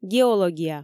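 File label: "геология", Komi, female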